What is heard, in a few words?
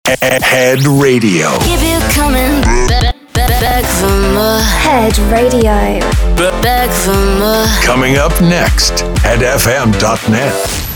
Sweeper Back to the hits